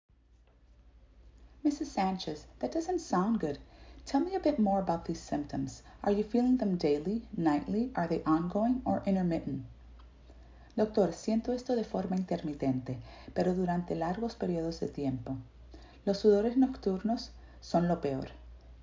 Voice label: Medical Certified Oral Spanish Practice